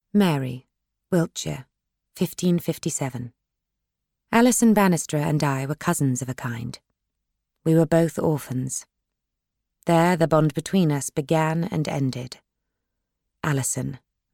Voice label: Audiobook